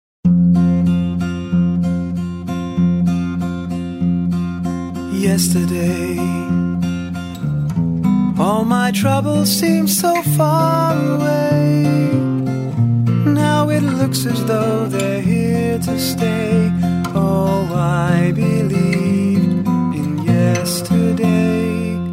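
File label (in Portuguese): despedida pra quem vai masculino, telemensagem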